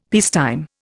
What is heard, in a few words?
"peacetime", Indian; Dictionary